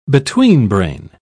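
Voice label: "betweenbrain", British English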